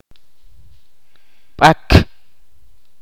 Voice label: "hueso" in Ch'orti'